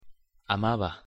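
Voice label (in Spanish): "amaba", Spanish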